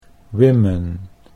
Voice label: "women", Dutch English

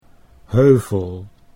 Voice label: "heuvel", Dutch

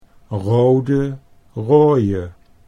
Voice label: "rode rooie", Dutch

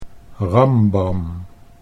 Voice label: "rambam", Dutch

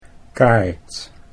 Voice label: "kaart", Dutch

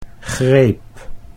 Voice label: "greep", Dutch

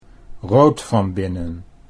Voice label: rood van binnen, Dutch

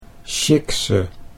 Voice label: "sjikse", Dutch

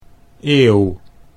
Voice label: "eeuw", Dutch

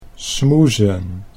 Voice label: "smoezen", Dutch

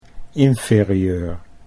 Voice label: "inferieur", Dutch

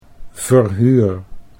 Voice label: "verhuur", Dutch

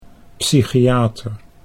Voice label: "psychiater", Dutch; 'psychiatrist'

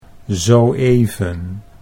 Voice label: "zo even", Dutch

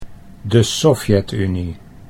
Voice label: "de Sovjetunie", Dutch

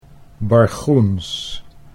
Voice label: "bargoens", Dutch

